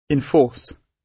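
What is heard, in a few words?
"enforce", British English; Crime and punishment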